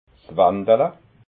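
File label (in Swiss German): "wandala", Alsatian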